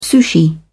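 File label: "sushi", British English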